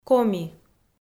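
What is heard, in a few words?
"коми" Komi, female; audio dict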